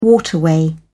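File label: "waterway", British English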